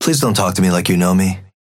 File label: synth match start atlas synth convo; Abrams